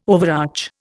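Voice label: "overarch", Indian English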